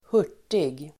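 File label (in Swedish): "hurtig", Swedish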